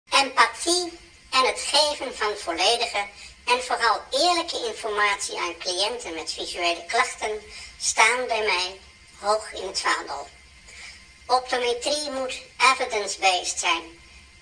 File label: Voice Changer